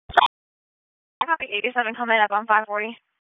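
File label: Dispatch 1, P25; WSO DISP 1; Wake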